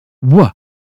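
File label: British phonetics sound we; 英语音标发音（剑桥版）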